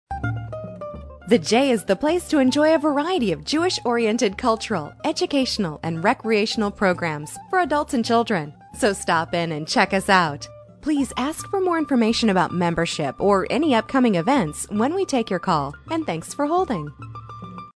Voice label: On Hold, messages on hold; entertainment; Jewish Community Center